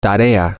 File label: homework, spanish, M